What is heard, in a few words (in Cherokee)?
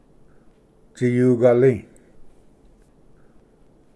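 "tsiyugali", Cherokee word, male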